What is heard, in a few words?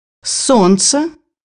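"sun", Russian; bukvar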